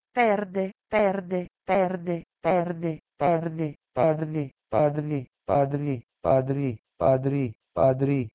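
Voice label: Proto-Indo-European perde to Urdu pardri; eastern origins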